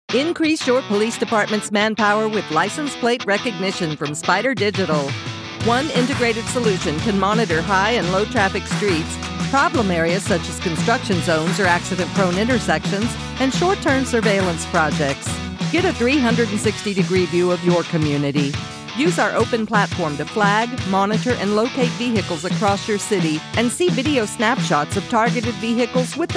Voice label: Commercial, Energetic · Confident · Conversational; Warm · Informative · Professional